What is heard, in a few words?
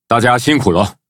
victory, zh-hant